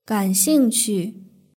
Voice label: "ganxingqu", Chinese; hsk3

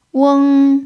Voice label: "weng1", Chinese pinyin

